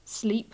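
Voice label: "sleep", British English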